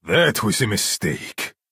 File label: male oops